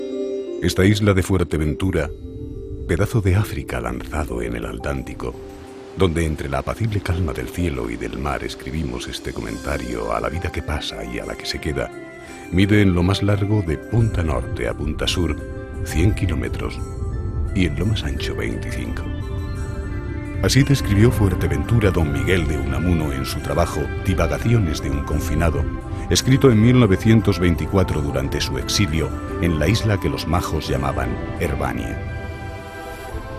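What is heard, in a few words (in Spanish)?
otros España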